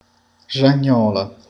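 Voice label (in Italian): "grandine", Italian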